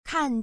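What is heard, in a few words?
"kan4", Chinese, female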